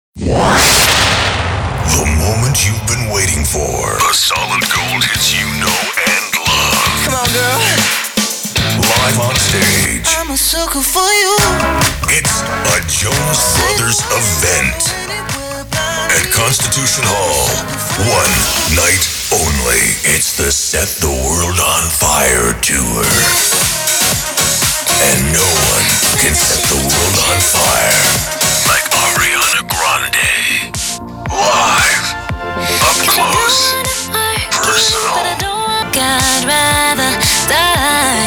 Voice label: Concert Spots